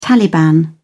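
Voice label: "taliban", British English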